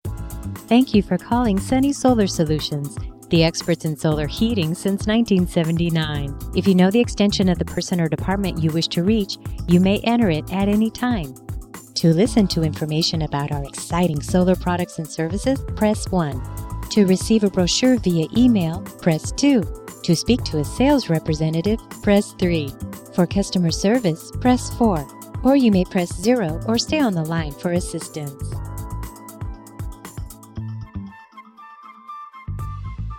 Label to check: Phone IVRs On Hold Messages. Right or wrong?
Right